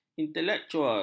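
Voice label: "intelektual", Malay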